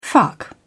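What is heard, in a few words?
"fuck off", British English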